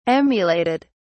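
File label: "emulated", British English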